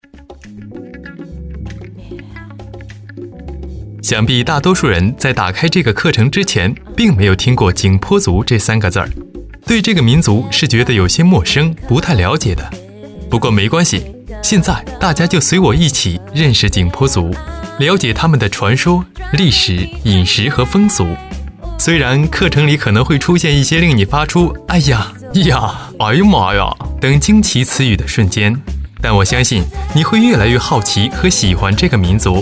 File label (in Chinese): 男 解说 视频解说, 国语男声配音, 青春时尚